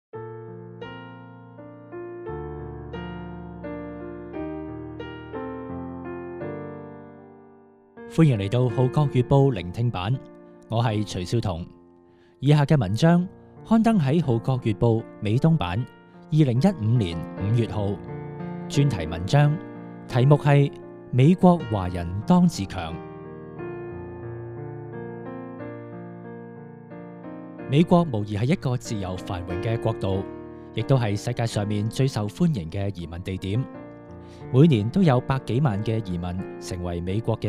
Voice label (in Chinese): Cantonese